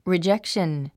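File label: "rejection", English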